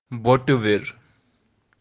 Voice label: "botti virr", Kashmiri

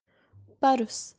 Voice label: "parus" last year, kashmiri

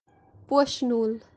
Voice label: "poshinool" golden oriole, kashmiri

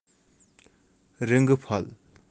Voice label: "ringi phal", kashmiri

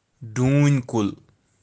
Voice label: "doon kul", Kashmiri

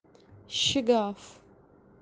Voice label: "shigaaf" crack kashmiri urdu

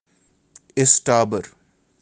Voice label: "istaber", kashmiri

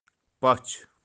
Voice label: "pach" fortnight "pachwaar", kashmiri

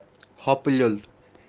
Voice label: "hopu leol" chubby kashmiri fat

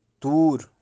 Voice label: "Tur" "toor" moorhen

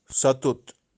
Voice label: "Satut" hoopoe, kashmiri

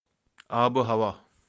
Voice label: "abuhawa" climate kashmiri

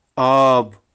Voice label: "aab", kashmiri

